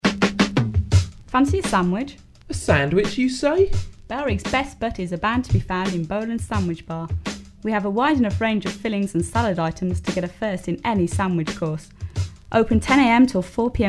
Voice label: ad; bfm jingles ads RSL 1995